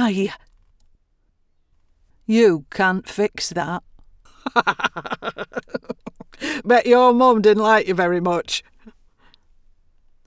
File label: Recruit Female